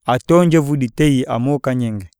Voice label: breath, iyasa